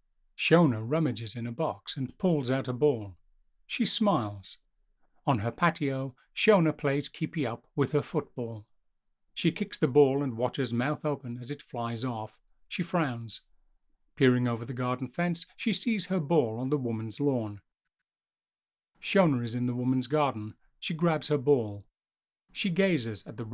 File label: Audio Description English